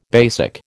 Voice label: "BASIC", American